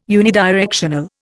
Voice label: "unidirectional", Indian